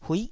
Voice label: "huih9", taiwanese

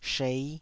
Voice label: "shei5", taiwanese Syllables

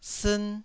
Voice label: "siin1", Taiwanese

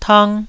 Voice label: "tang1", Taiwanese

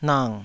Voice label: "nang3", taiwanese syllables

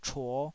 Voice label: "chuo5", taiwanese

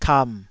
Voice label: "kam3", taiwanese syllable

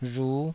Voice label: "rhu5", taiwanese

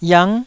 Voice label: "yang9", taiwanese Syllables

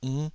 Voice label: "innh5", taiwanese syllables

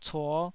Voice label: "cuo5", Taiwanese syllable

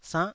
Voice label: "sannh9", taiwanese syllable

